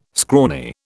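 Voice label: "scrawny", British English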